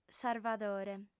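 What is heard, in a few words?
"sarvadore", lingua sarda, female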